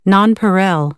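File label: "nonpareil", American English; AmericanBritish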